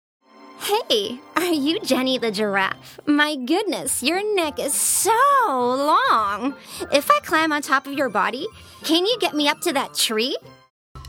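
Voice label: American, toys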